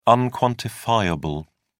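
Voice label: "unquantifiable", British English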